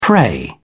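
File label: "prey", British English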